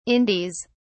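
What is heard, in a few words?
"indies", British English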